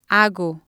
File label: "hago", spanish